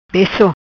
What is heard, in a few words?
"Beco", Macanese; Beiço; Lip